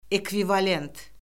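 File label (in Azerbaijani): "ekvivalent", Azerbaijani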